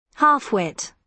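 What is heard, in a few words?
"half wit", British English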